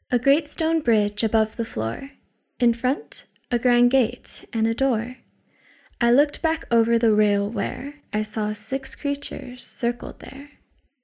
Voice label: Poetry; Six Creatures